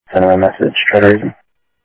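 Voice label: Lakes Sheriff Lake Patrol, scanner audio trunk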